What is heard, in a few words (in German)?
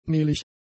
"mehlig", German; adjectives